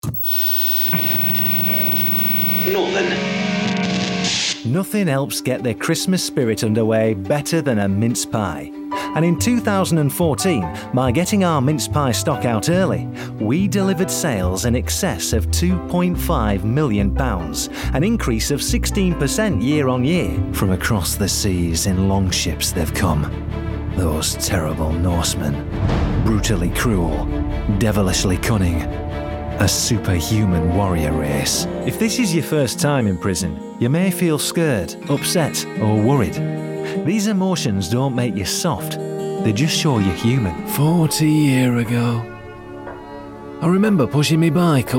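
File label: northern, Youthful Voice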